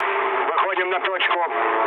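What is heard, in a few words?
near waypoint, mood low, Russian; War Thunder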